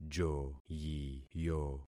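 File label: "joyiyo", concatenated syllables; Affixing experiment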